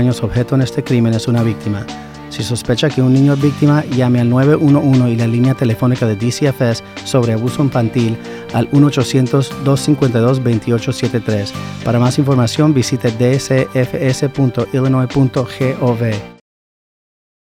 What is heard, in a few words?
human trafficking spanish; DCFS